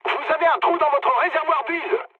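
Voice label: damaged oil, mood medium, French; War Thunder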